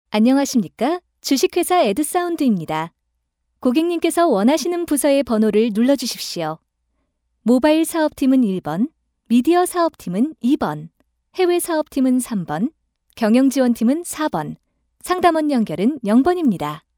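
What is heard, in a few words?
Korean, female, ARS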